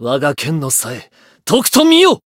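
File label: variable chant, Japanese; shion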